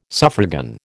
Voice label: "suffragan", American

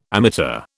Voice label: "ammeter", British

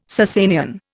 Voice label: "Sassanian", Indian

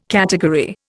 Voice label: "category", Indian

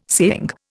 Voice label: "saying", Indian

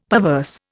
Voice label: "perverse", Indian; Dictionary

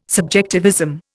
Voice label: "subjectivism", Indian

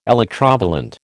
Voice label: "electrovalent", American

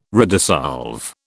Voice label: "redissolve", British

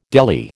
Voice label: "deli", American

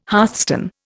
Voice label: "hearthstone", Indian

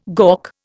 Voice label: "gawk", Indian

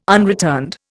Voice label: "unreturned", Indian

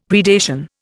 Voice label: "predation", Indian; Dictionary

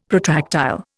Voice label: "protractile", Indian; Dictionary

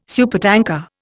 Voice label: "supertanker", Indian

